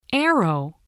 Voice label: "arrow", English

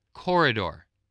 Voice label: "corridor", voice spelling

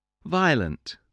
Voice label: "violent", English

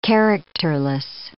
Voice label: "characterless", English

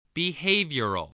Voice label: "behavioral", English

